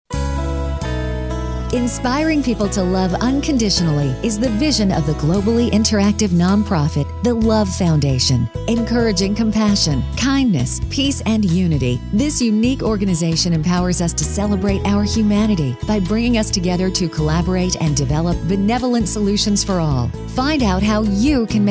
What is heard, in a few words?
commercial; love foundation